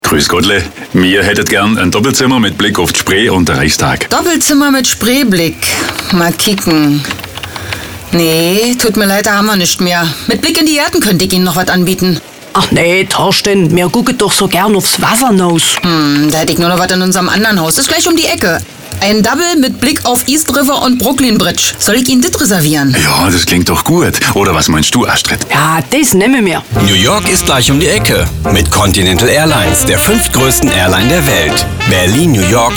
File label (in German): Tourismus Radiowerbung